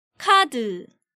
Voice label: "Card", Korean

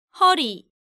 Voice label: "Heori", Korean

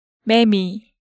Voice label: "Maemi", Hangeul sounds; DigitalSoju

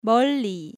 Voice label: "Meolli", Korean

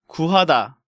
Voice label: "Guhada", Korean

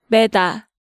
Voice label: "Meda", Hangeul sounds; DigitalSoju

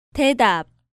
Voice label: "Daedap", Korean

